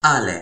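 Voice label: "ale", toki pona; linku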